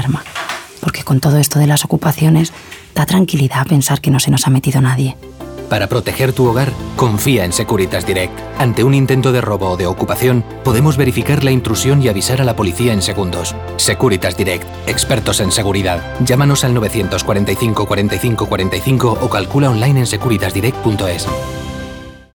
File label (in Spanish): cuñas ganas de playa cocina